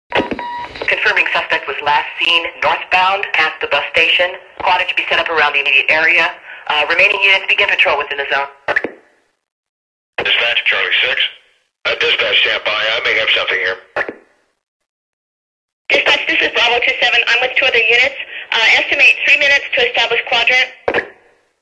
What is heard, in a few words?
Police Radio Pursuit